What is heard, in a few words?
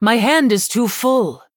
Female Human ERROR HAND FULL